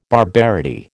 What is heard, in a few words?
"barbarity", American